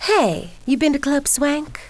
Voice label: swank, female; kingpin; yolanda

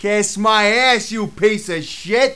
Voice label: cuss, profanity, male; kingpin

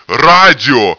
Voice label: radio!

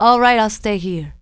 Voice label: "hang", female; kingpin; hiredgirl; orders; hold

